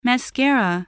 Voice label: "mascara", spelling voice